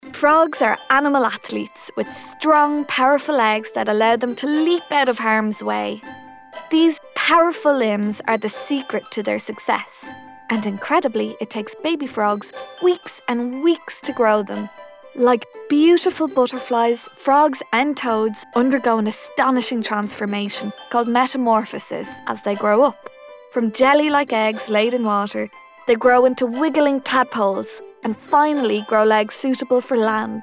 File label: Narrative